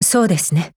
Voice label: AGREE JP